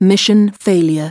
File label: fail, English